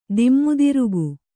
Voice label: "ದಿಮ್ಮುದಿರುಗ", kannada

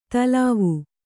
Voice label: "ತಿಲ್ವ", Kannada

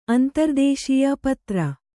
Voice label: "ಅಂತರ್ದೇಶೀಯ ಪತ್ರ", kannada

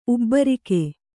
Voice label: "ಉಬ್ಬರಿಕೆ", Kannada

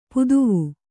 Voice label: "ಪದುವ", Kannada

